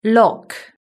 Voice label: "lock", british english